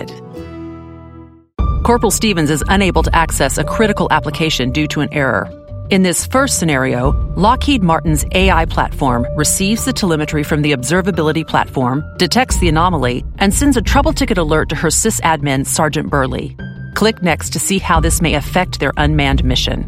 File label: E Learning Voice Over